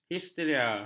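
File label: "histeria", Malay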